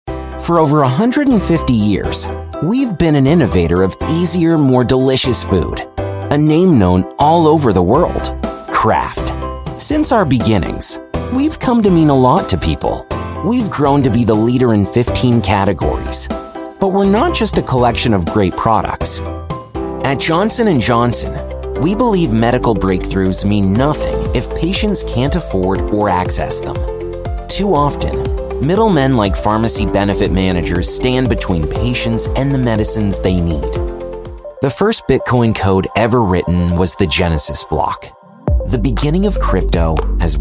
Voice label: narration